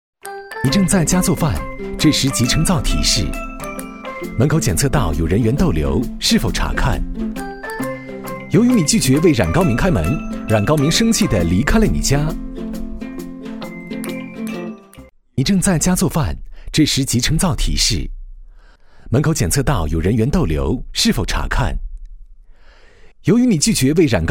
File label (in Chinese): 广告